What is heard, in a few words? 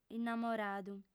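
"innamoradu", female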